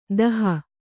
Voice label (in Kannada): "ದೇಹ", Kannada